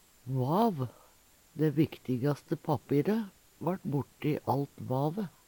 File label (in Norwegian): "vav", Numedalsmål; Dæ viktigaste pappire vart borte i alt vave.